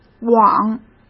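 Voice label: "往", Mandarin Chinese; hsk; chinese-flashcards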